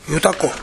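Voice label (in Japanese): "yotako", 南山形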